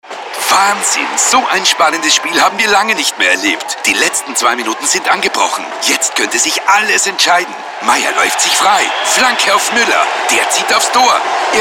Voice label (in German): Werbespot; BLACKOUT